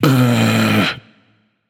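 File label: "seriously", male; smite; voicepack; olorun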